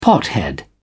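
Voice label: "pothead", British English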